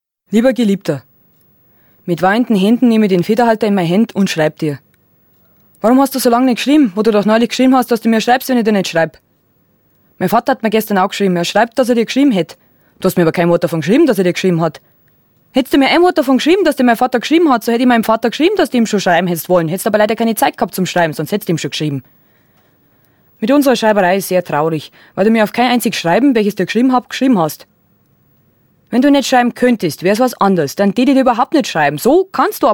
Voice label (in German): Hörbuch bayerisch